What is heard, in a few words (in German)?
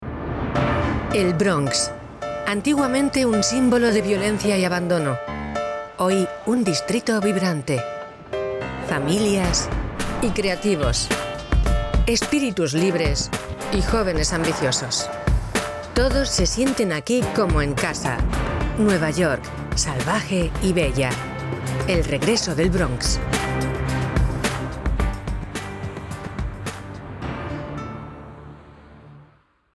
trailer, spanisch